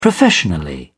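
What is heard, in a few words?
"professionally", English, British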